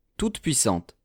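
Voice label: "toute-puissante", French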